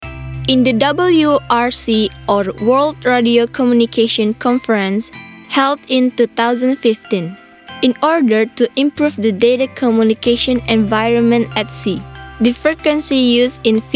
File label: ENGLISH FORMAL, voiceover